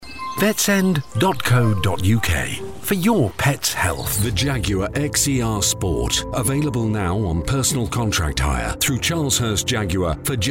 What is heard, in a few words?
english british commercial